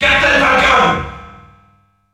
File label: French Announcer; Captain Falcon; SSB